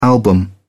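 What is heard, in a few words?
"album", British English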